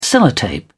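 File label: "sellotape", British English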